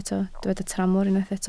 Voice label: "tramor", Welsh